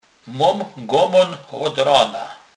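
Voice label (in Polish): "gomon", Kurpie dialect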